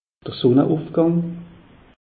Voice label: "sunneufgang", alsace; meteo

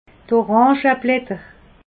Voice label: "orangeblatter", alsace

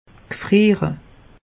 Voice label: "gfriere", alsace; meteo

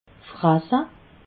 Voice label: "frasse", alsace

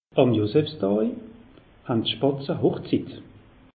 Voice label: "joseph daa", alsace; meteo

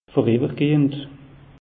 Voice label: "voriwwergehend", alsace; meteo

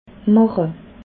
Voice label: "mache", alsace

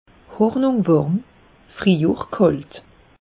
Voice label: "hornung", alsace; meteo

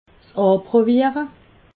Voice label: "aprowiere", alsace

